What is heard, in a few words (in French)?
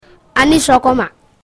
Bambara; les langues au senegal